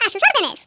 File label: yes sir, Hispanic; Worms Armageddon